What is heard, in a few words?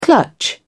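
"clutch", English, British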